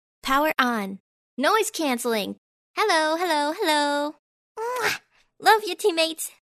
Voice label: ENG